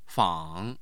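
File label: "fang", Chinese, third tone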